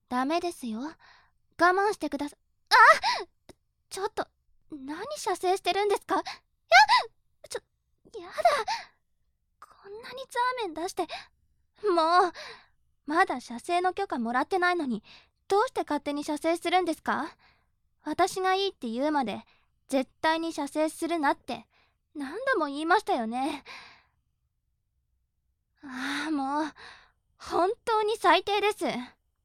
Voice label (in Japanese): 調教中音声, ASMR